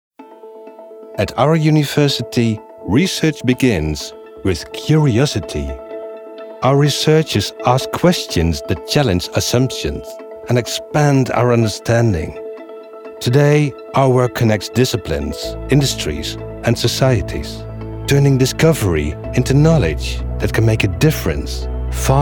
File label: Institutional Narration